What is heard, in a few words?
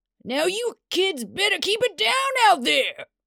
Curmudgeon yelling for kids to keep it down; Foley Library; People Sounds